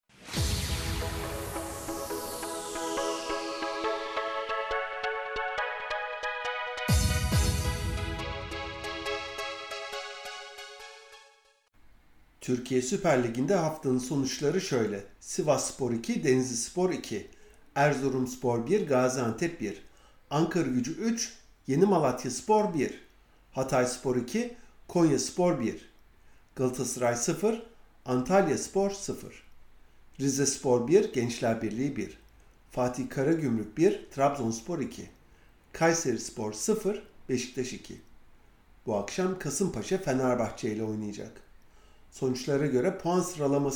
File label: turkish sports; Beşiktaş 81 hafta sonra lig lideri; SBS Turkish; Türkiye Süper Ligi’nde haftanın sonuçları şöyle